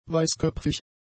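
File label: "weißköpfig", German; adjectives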